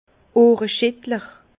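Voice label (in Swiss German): "ohreschittler", Alsace Bas Rhin; vin; qui secoue les oreilles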